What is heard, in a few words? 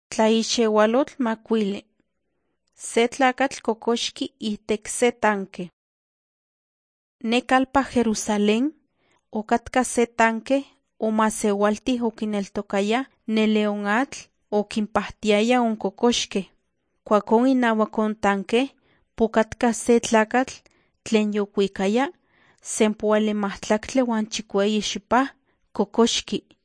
nahuatl guerrero tlapa; the bible league nt drama